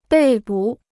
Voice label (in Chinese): "被捕", Chinese